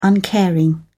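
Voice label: "uncaring", British English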